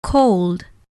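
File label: "cold", English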